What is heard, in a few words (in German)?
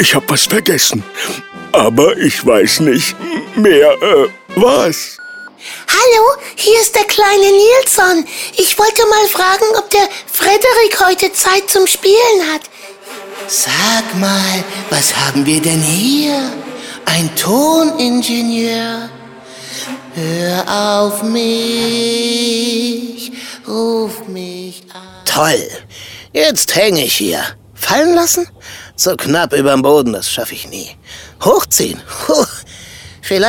trickstimmen dialekte medley